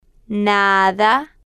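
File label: "nada", Spanish, female, slow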